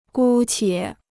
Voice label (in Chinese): "姑且", Chinese